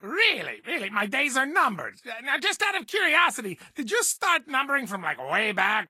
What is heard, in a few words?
English Voice